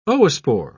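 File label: "oospore", British English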